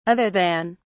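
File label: "other than", British English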